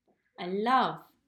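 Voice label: "I love", English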